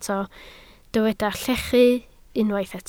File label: "llechu", Welsh